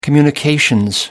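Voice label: "communications", British English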